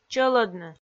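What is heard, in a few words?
"чӧлӧдны", Komi, female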